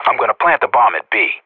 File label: Professional Radio bot planting